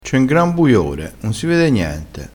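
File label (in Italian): "buiore", vocabolario dialettale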